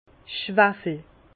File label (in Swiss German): "schwawel", Bas Rhin; vin; soufre